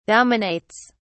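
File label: "dominates", British English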